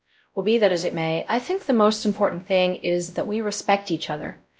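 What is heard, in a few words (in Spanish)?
Voice Acting, es, female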